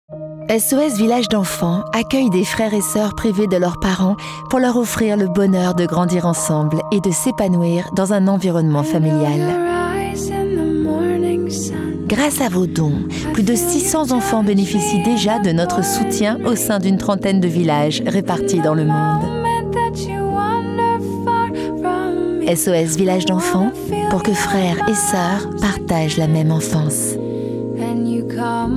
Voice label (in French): empathique; Sos enfants